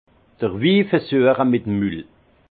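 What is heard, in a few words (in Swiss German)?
"wiversueche", Alsace Bas Rhin; vin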